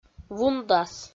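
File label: "вундас", Komi, female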